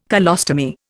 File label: "colostomy", Indian